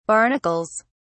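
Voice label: "barnacles", British English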